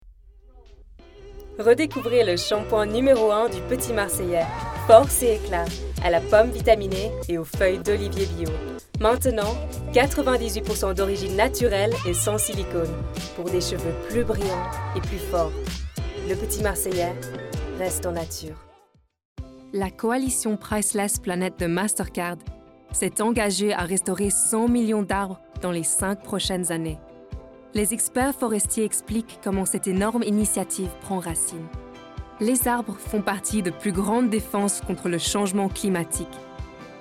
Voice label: French